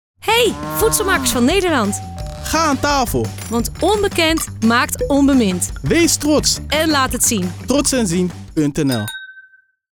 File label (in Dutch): Commercial; Aan Tafel; Voedselmakers